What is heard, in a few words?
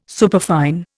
"superfine", Indian